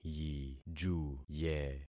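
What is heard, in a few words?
"yijuye", concatenated syllables; Affixing experiment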